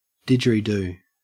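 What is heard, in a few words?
"didgeridoo", Australian English; pronunciations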